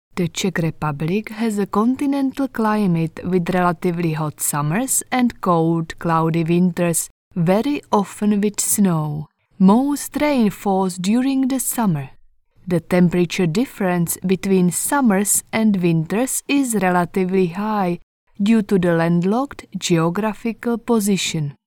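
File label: Czech Accented English; GoLocalise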